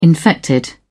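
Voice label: "infected", British English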